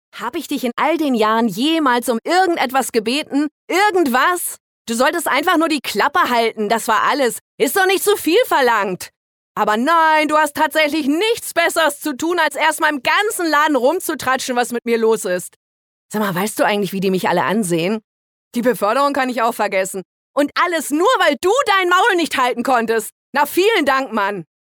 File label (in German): Game Rolle Wut